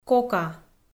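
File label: "кока" Komi, female; audio dict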